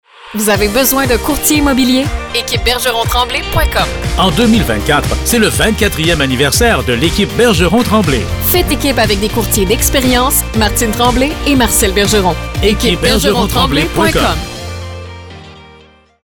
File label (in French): Pub Radio; Equipe Bergeron Tremblay